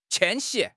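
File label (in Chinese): "迁徙", Chinese; HSK6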